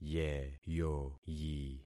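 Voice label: "yeyoyi", concatenated syllables; Affixing experiment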